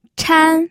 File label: "chan1", pinyin